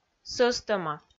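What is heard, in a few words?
"сӧстӧма", Komi, female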